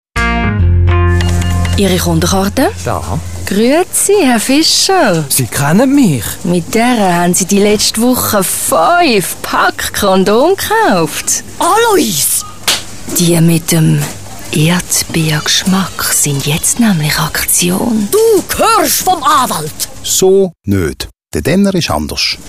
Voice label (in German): Radiospot Kassiererin CH DE; Denner; sprecher; profi